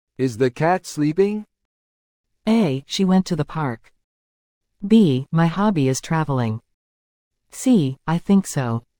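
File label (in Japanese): ノーマル, リスニング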